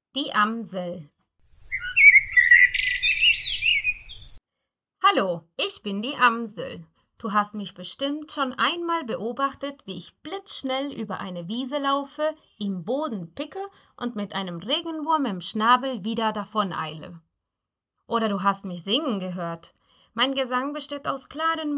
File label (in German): Vorlesetext Steckbrief Amsel; Hallo, ich bin die Amsel!; vogelstimmen; kinder